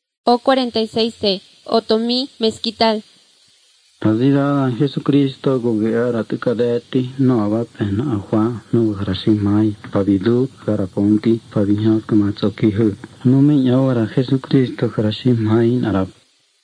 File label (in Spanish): spanish mexico